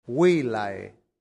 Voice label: "villae", latin; santillana

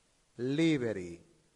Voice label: "liberi", latin; santillana

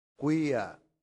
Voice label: "quia", latin; santillana

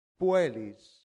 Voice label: "puellis", latin; santillana

